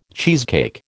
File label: "cheesecake", American